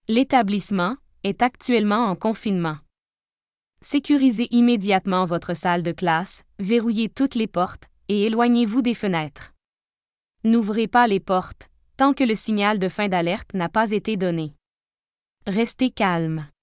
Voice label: emergency Lockdown, voice announcement